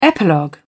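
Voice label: "epilogue", British English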